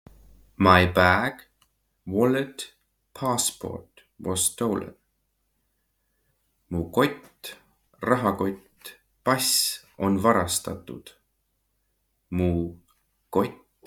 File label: My bag wallet passport was stolen, Estonian phrasebook; the talking estonian phrasebook